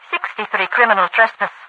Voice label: criminal trespass, overwatch radio voice; Source Engine; video game; npc